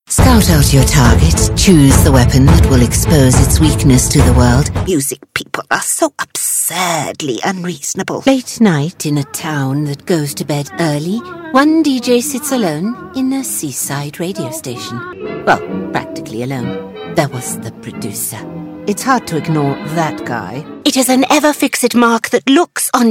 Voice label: english neutral video games